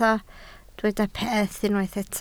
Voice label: "peth", Welsh